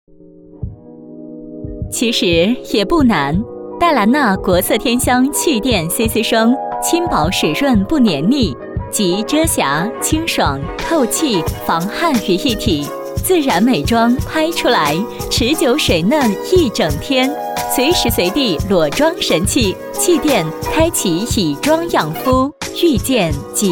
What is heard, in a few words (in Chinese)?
女 美妆 气垫CC霜